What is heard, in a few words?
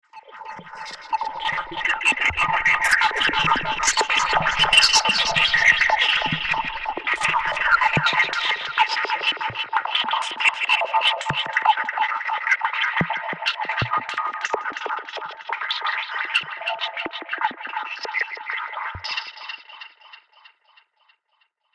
Strange Alien Language; Alien Sound Effects; science fiction sound effect